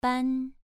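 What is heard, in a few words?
"ban" Mandarin, second tone, pronunciation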